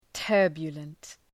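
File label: "turbulent", English; {‘tɜ:rbjələnt}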